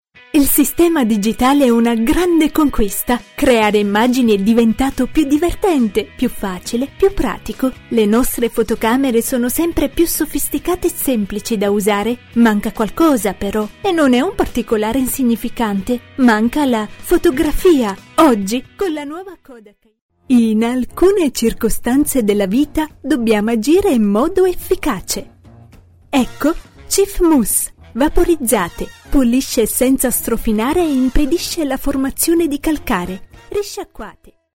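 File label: Italian, female, voice over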